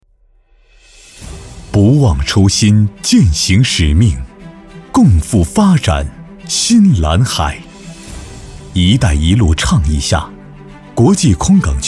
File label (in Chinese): 企业宣传